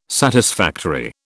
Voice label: "satisfactory", British